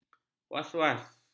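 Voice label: "waswas", Malay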